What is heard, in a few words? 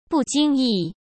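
"不经意", Mandarin Chinese; chinese flashcards; hsk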